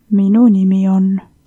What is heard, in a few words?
my name is, estonian; Minu nimi on ...